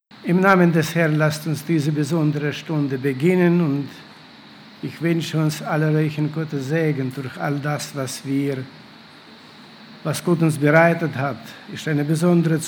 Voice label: Ansage; Lagerversammlung 2018

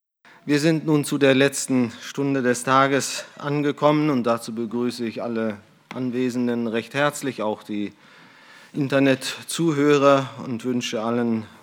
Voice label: Ansage; Fuerchte dich nicht

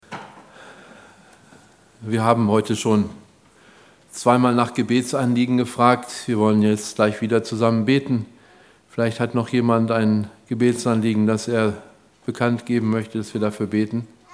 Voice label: Ansprache; Was soll ich tun damit ich gerettet werde

